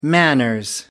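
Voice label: "manners", British English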